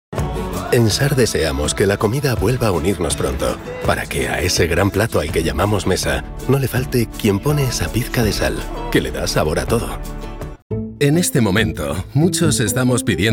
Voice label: Spanish Castilian